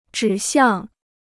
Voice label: "指向", Chinese

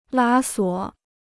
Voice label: "拉锁", Chinese

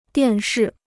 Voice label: "电视", Chinese

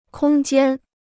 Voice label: "空间", Chinese

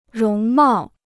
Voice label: "容貌", Chinese

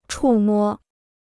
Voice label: "触摸", Chinese

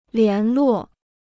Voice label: "联络", Chinese

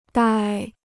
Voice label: "呆", Chinese

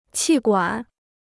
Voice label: "气管", Chinese

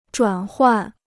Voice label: "转换", Chinese

